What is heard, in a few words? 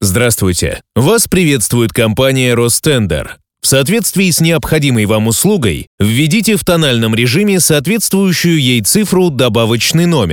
M IVR